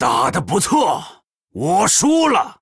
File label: Male Troll Concede, zh